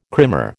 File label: "krimmer", American